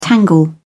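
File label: "tangle", British English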